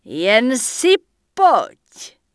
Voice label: Night Elf Female Standard NPC Greeting; wowpreklad; czwow

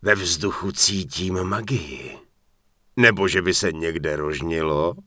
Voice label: Human Male Pissed, Human Vocal Male; wowpreklad; czwow

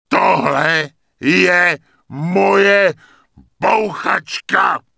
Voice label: Rifleman Pissed; wowpreklad; czwow

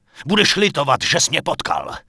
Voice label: Night Elf Male Standard NPC Pissed; wowpreklad; czwow

